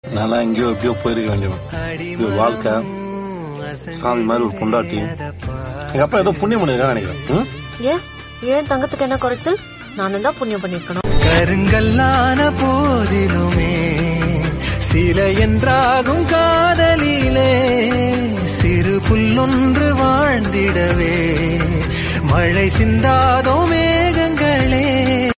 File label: husband and wife dialogue love Tamil; karuppan